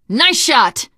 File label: nice shot, teen girl voice; survivor voice